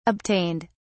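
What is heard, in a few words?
"obtained", British English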